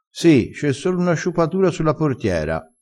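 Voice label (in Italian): "sciupa", dialettale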